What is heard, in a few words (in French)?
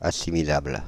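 "assimilable", French, Paris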